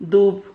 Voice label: "dub", Silabas

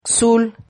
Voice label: "xul", Silabas

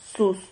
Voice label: "zuz", Silabas

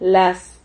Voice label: "laz", Silabas

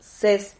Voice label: "sez", Silabas

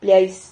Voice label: "pliais", Silabas

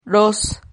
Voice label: "roz", Silabas

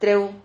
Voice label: "treu", Silabas

